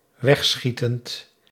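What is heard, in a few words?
"wegschietend", Dutch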